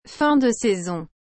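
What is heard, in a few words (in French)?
"fin de saison", French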